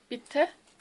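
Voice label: "bitte", German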